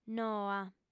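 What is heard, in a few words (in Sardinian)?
"noa", female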